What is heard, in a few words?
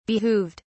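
"behooved", British English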